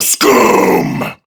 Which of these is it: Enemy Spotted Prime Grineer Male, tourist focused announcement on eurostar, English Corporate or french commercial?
Enemy Spotted Prime Grineer Male